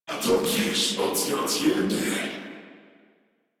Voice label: Patrol, Battle Barks